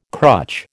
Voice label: "crutch", American